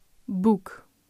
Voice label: "boek", dutch